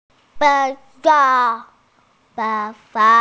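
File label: "mi4 feng1", Mandarin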